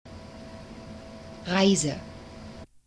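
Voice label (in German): "Reise", German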